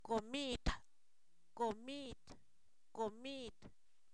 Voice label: comida, Zapoteco, san miguel albarradas; comestible

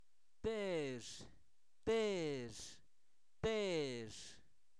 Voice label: Zapoteco, santo domingo albarradas; teja

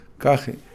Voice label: cuatro, Kakchikel; Números

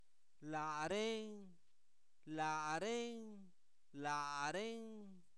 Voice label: ellos cosa, Zapoteco, santo domingo albarradas; INALI; pronombre libres

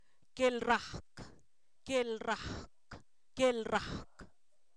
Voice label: saber, Zapoteco, san miguel albarradas; sustantivos

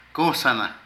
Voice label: Bañarse, Zapoteco de Petapa; Cuerpo; Vocabulary

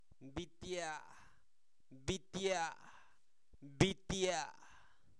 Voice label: epazote, Zapoteco, santo domingo albarradas; alimentos; INALI

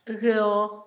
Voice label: cal, Zapoteco, Asunción Ocotlan; INALI; Haspelmath

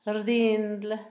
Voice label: pleito, Zapoteco, Asunción Ocotlan; Sustantivos; INALI

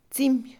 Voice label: preso, Mixe, Mujer; INALI

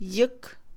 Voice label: Mixe, Mujer; moreno; Percepción; INALI

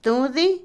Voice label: lana, Otomí, mujer; Ropa; INALI

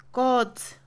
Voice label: oscuro, Mixe, Mujer; INALI

